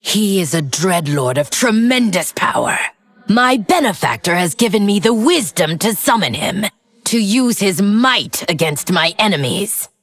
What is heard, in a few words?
Female Undead